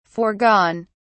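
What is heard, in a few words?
"forgone", British English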